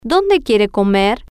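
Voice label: Spanish, where do you want to eat, female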